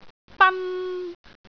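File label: "ban1", Cantonese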